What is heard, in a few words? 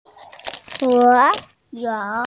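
"suo3you3", Mandarin Chinese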